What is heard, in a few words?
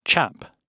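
"chap", British English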